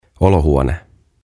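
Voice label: "Olohuone", "Living Room", Finnish, English; OTAVA KONSERNI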